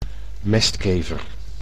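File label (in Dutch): "mestkever" Dutch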